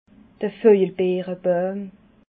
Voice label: "vojelbeerebauim", alsace

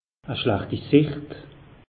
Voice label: "schlachtisicht", alsace; meteo

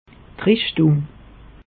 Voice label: "richtung", alsace; meteo

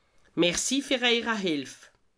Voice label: "hilf", alsace

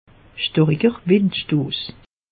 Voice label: "stariker", alsace; meteo

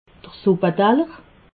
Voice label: "suppadaller", alsace

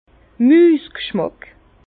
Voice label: "musgschmack", Bas Rhin; vin; souris goût de

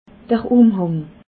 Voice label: "umhang", alsace

